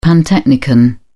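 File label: "pantechnicon", British English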